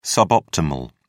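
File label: "suboptimal", British English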